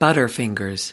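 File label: "butterfingers", US English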